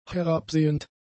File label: "herabsehend", German; adjectives